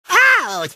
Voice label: pain; tf; crash